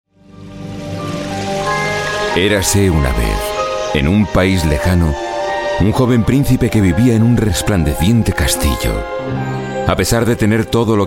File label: spanish voice over male audiobook